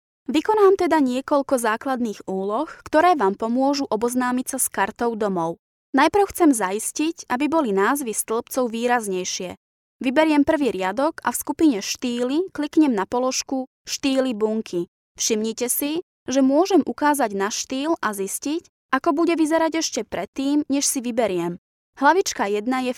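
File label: Slovak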